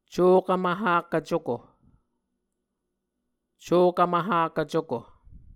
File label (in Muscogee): "cokv mvhakv cuko", Muscogee